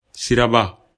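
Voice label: "siraba", Bambara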